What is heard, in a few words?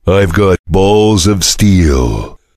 chitchat; svencoop; voice commands; Duke